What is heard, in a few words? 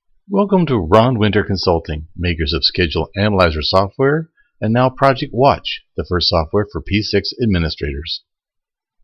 announcement